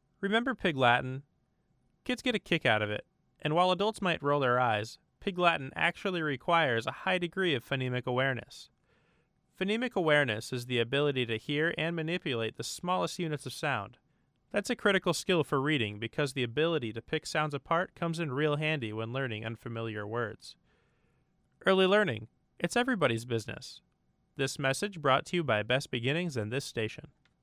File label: psa pig latin